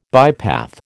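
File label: "bypath", American English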